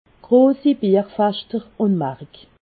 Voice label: "bierfeschter", Alsace

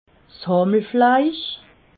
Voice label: "hammelfleisch", alsace

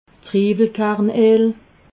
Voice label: "triiwelkerneel", alsace

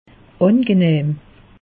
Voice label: "angenahm", alsace; meteo

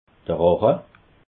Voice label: "rache", alsace

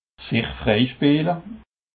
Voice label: "sichfreispeele", alsace

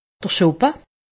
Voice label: "schoppe", alsace; medecine

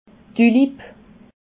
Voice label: "tulip", alsace

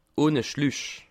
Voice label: "schluch", alsace

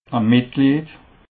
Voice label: "metglied", alsace

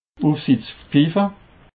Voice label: "ufsitspfiffe", Alsace

